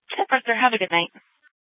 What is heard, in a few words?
Sheriff, scanner P25; Shrf Lakes